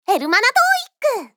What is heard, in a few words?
TOEIC Voice